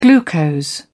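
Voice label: "glucose", English, British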